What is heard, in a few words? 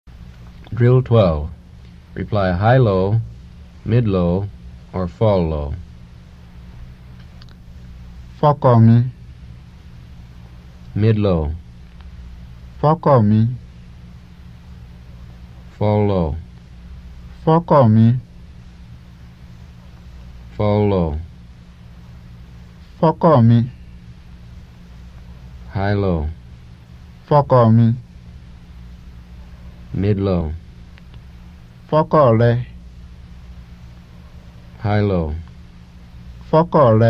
Yoruba; FSI; Basic